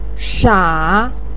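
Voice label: "sha", Chinese pinyin, third tone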